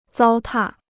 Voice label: "糟蹋", Chinese

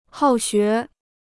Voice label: "好学", Chinese

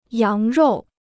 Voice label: "羊肉", Chinese

